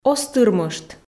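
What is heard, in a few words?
"оз тырмышт", Komi, female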